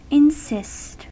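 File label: "insist", American English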